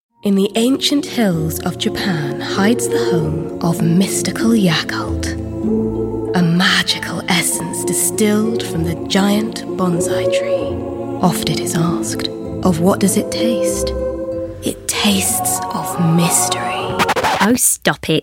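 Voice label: commercial, female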